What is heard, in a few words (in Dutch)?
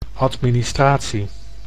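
"administratie", Dutch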